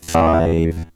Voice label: Vocoder